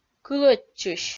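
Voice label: "кылӧдчысь", Komi-Zyrian, female; kpv audio dict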